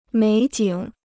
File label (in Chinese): "美景", Chinese